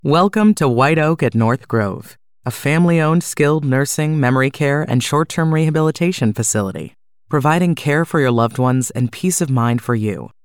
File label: Gravitas confident retirement community family corporate phone nursing; caring